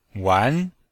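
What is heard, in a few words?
"wan2", Chinese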